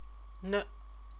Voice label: "ne", Chinese; 呢; [particle]